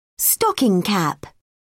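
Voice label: "stocking cap", English, British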